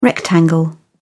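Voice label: "rectangle", British English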